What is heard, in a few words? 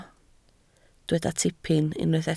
"tipyn", Welsh